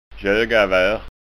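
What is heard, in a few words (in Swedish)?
"gogavar", Scanian dialect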